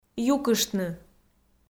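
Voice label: "юкыштны", Komi, female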